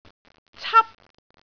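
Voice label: "cap1", Cantonese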